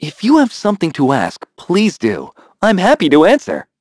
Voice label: vox, en; kingsraid